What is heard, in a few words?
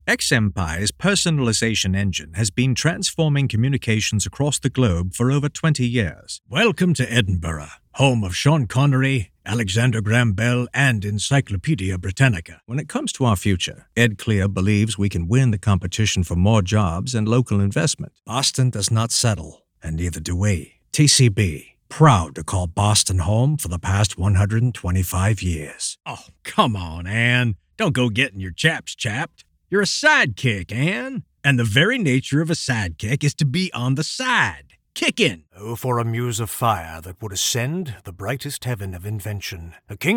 Accent